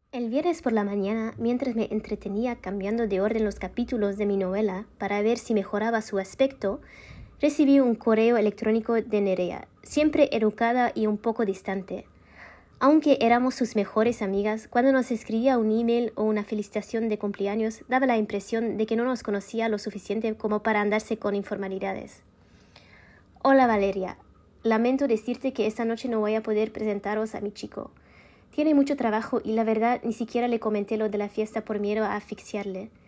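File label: Spanish language, voice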